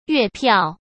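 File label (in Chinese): "月票", Mandarin Chinese; chinese-flashcards; hsk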